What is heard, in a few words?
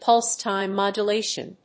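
"pulse-time modulation", English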